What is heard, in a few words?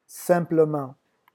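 "simplement", French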